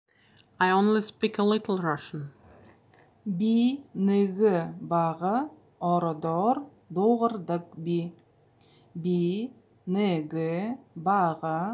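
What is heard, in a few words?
I only speak a little Russian, Buryat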